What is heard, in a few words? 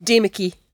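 "damackie or demackie", Cromarty Fishertoun dialect; [dAM-a-kee or dUM-a-kee]; girl or woman, small; Word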